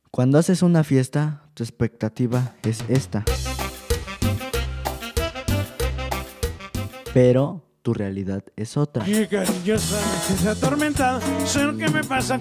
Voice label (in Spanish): comercial; cris exportacion